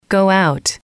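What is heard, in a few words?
go out, English, female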